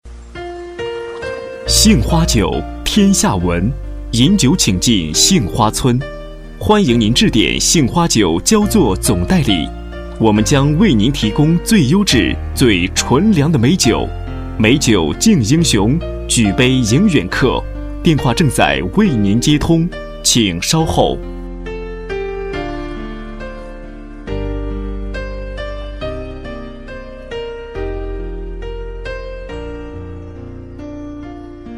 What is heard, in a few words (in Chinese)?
彩铃 杏花酒加音乐